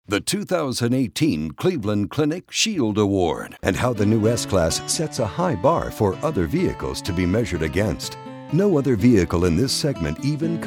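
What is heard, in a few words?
CORPORATE E-LEARNING DEMO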